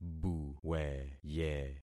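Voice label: "buweye", concatenated syllables; Affixing experiment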